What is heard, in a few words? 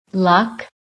"luck", American